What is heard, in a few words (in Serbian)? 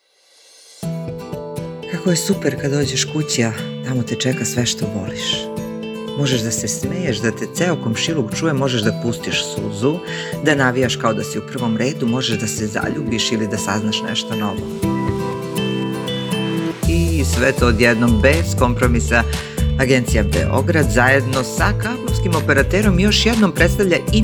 Reklama; In Slovak Language